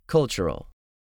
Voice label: "CULTURAL", english; 3000 words